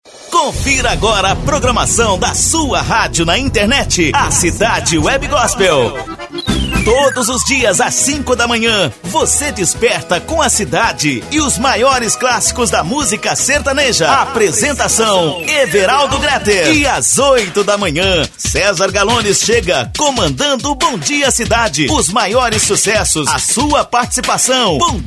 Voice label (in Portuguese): vinhetao progrmacao